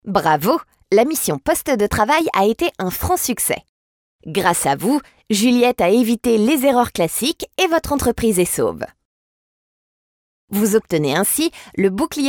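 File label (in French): VOIX ENFANTS, Comédienne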